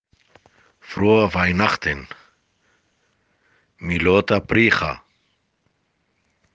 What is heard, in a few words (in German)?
weihnachten aramaeisch